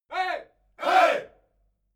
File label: military shout "hey"